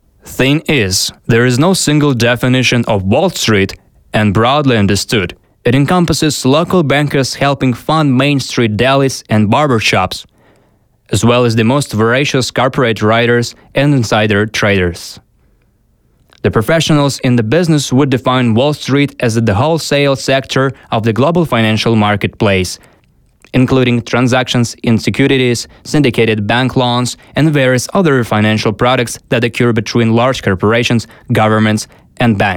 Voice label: ENG